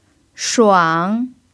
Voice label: "shuang3", Chinese pinyin